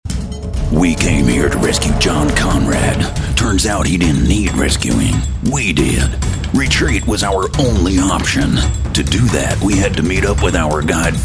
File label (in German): eng produktfilm